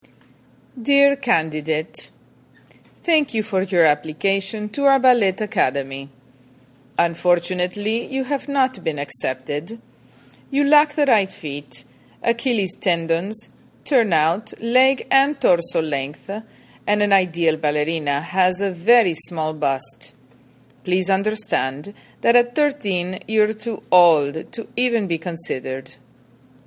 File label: ballet commercial vo audition; under armour